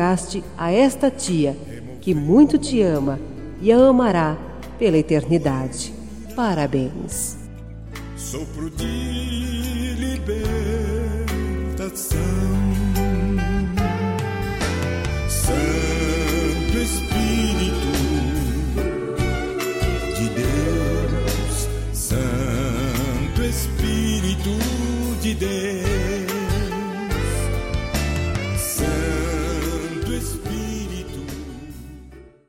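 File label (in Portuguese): Evangélica Aniversário de Sobrinha, female, telemensagens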